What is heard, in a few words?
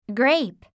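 "grape", English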